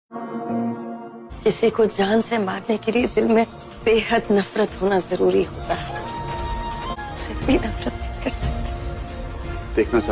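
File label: Sad Ringtone With Heart Touching Dialogue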